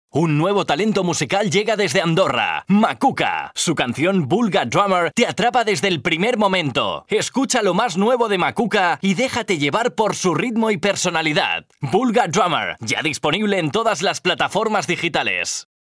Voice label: locutor spanish voiceover, Spanish male voice talent; locutor español; Voces masculinas